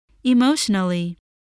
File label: "emotionally", spelling